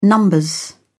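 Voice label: "nos", British English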